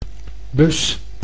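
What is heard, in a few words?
"bus", Dutch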